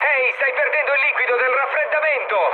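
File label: Italian damaged water mood med, speech; War Thunder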